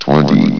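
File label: "twenty", male synthesized announcer voice; hlvox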